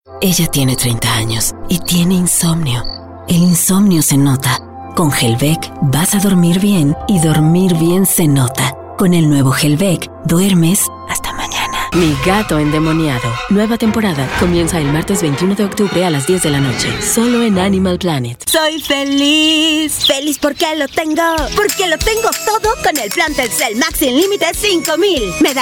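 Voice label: Mexican Spanish F Commercial, female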